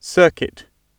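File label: "circuit" British English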